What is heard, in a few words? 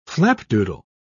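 "flapdoodle", British English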